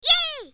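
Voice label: "yeeei", Japanese female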